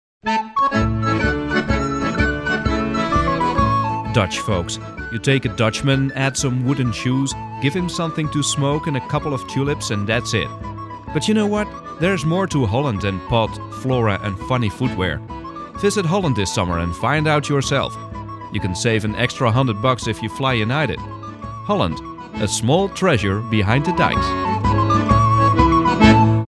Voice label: english; DoDutch Productions